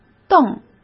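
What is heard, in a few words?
"瞪" Mandarin Chinese; chinese flashcards; hsk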